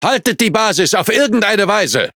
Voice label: Speech Quick Commands Ally Base, DE; World Of Warships

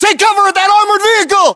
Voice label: order cover armored vehicle, US

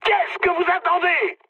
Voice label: attack position mood high, speech fr; War Thunder

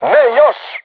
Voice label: voice message art reloading, crew tanks serbian

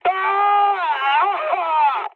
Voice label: damaged pilot, mood high, speech; War Thunder

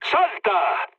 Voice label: bailout, mood low, speech; War Thunder

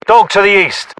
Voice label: UK threat dog east, battlechatter

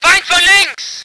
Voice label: voice ger vehicle

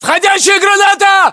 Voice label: inform attack grenade, battlechatter, ru

